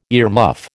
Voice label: "earmuff", American